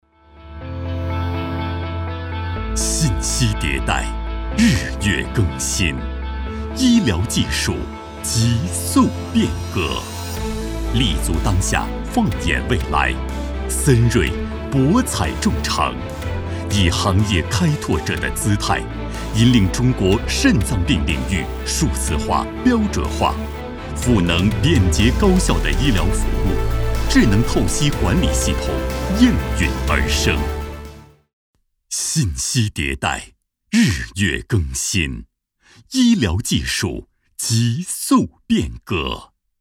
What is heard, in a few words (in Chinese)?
男 广告 大气 雄浑 力度